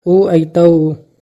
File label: Oo aitau., Magahi; He will come.